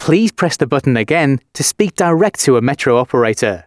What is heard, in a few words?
announcements, male